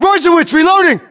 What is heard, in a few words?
inform reloading, battlechatter, English, US